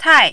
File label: "tai4", mandarin